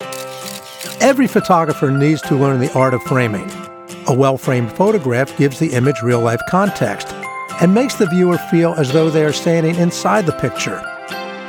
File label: Narration